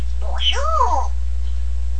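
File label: "bonjour", French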